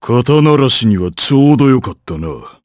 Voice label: Vox Victory, jp; Bernheim; kingsraid

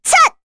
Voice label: Vox Attack, kr; voices; heroes; Rehartna; kingsraid

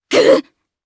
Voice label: Attack, jp; kingsraid

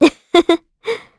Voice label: Vox Happy kr; Hilda; kingsraid

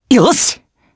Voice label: Happy, jp; Theo; kings raid

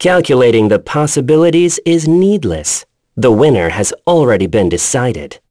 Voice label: vox, en; kingsraid

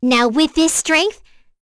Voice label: vox, en; kingsraid; voices heroes

